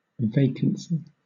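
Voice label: "vacancy", British English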